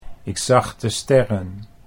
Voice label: "ik zag de sterren", Dutch

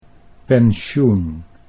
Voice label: "pensioen", Dutch

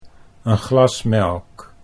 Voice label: een glas melk, Dutch

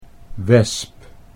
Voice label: "wesp", Dutch

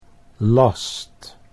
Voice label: "last", Dutch

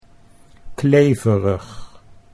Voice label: "kleverig", Dutch

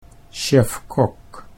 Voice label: "chef kok", Dutch

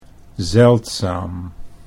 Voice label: "zeldzaam", Dutch

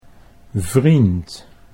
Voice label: "vriend", Dutch; 'friend'

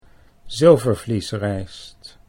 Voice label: "zilvervliesrijst", Dutch

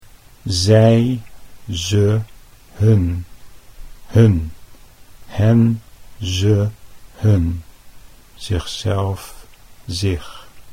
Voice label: "zij ze", Dutch

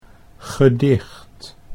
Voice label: "gedicht", Dutch

